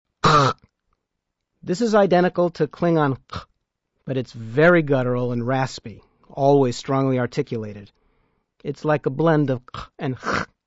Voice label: Klingon sounds Q, Letter Q; Note:never lower case!